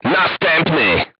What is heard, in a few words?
voice message target destroyed, polish